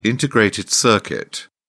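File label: "integrated circuit", British English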